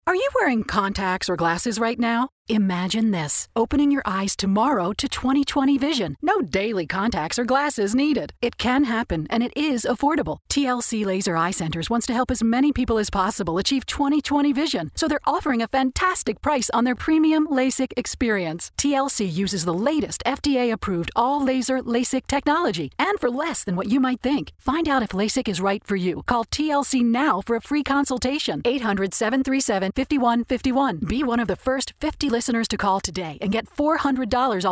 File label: Female VO